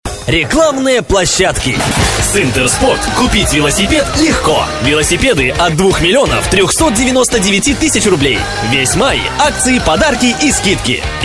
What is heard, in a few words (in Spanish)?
Masculino